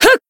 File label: Voice attack, jp; minalca